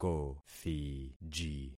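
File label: "kothiji", concatenated syllables; Affixing experiment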